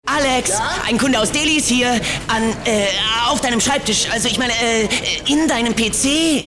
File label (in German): Werbung Aufgeregt